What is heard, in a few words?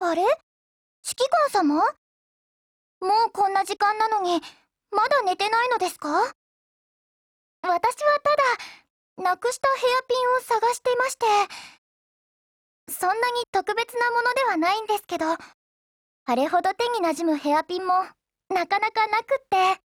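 DIALOGUE, Japanese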